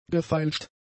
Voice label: "gefeilscht", German; adjectives

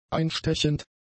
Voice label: "einstechend", german; adjectives

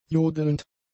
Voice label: "jodelnd", german; adjectives

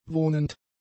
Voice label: "wohnend", German; adjectives

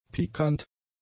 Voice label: "pikant", German; adjectives

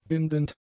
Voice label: "bindend", German; adjectives

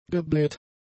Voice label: "gebläht", German; adjectives

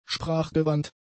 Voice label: "sprachgewandt", German; adjectives

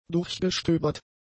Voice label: "durchgestöbert", German; adjectives

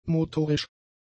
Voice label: "motorisch", German; adjectives

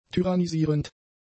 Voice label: "tyrannisierend", German; adjectives

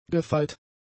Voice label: "gefeit", German; adjectives

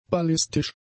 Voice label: "ballistisch", German; adjectives

